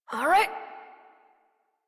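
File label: horse start adult, English; Warframe; Duviri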